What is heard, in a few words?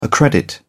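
"accredit", British English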